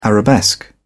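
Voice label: "arabesque", British English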